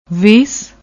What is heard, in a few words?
"vase", Punjabi